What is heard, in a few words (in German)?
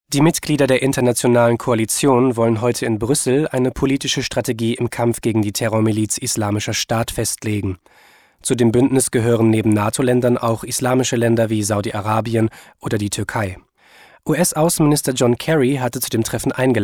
Nachrichten